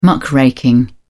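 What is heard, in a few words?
"muckraking", British English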